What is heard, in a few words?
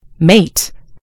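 "mate", US English; gcompris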